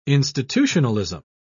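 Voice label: "institutionalism", British English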